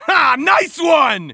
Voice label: taunt hah nice